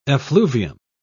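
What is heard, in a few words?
"effluvium", British English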